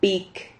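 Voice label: "pic", Spanish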